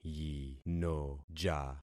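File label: "yinoja", concatenated syllables; Affixing experiment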